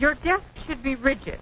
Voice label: desk should be ridgid, Quirky Female Typing Instructor; VOCALS and SPOKEN WORD